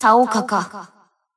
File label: System Voice; BBCP; Carl Clover